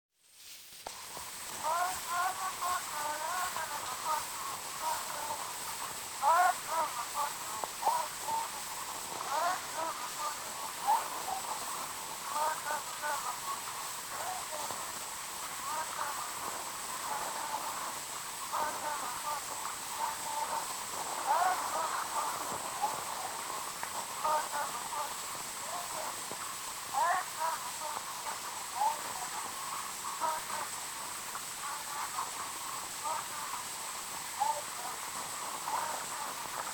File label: Zulu Recordings; Father Franz Mayr